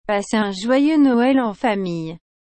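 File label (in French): "Passez un Joyeux Noël en famille", French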